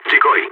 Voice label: decoy, balkan; Counter-Strike Global Offensive

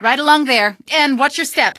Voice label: exit watchstep, female; Garry's Mod; hl2

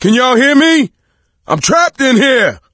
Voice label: call for rescue, voice; Left 4 Dead 2; coach

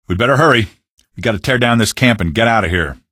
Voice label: gunboat hurry, male; Garry's Mod; hl2; canals

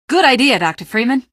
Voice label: squad affirm, female; Garry's Mod; hl2

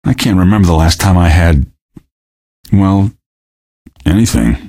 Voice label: question, female; Garry's Mod; hl2

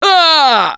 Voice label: Hurt Critical, russian; Gambler

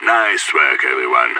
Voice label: radio bot end clean, player vo; idf

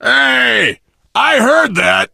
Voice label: initial meeting, survivor voice biker

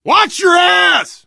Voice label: lookout, survivor voice biker; Left 4 Dead 2

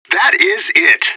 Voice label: radio bot cheer; fbihrt

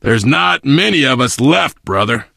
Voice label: loss, survivor voice biker; Left 4 Dead 2

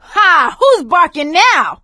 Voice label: taunt, survivor voice; Left 4 Dead 2; producer

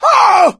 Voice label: incapacitated injury, voice; Left 4 Dead 2; coach

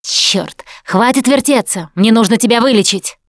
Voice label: Heal Other, russian; Producer

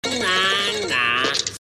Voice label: nahh nahh, taunt; ru

